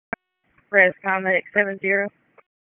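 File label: EMS Dispatch, p25; wake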